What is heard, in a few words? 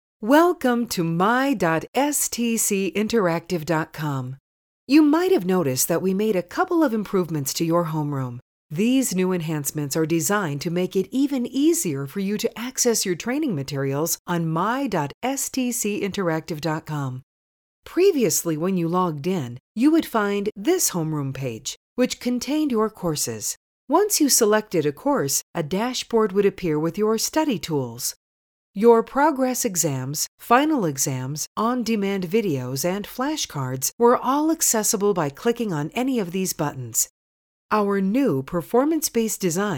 Educational; MySTC Interactive